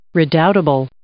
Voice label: "redoubtable", English